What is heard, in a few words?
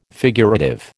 "figurative", American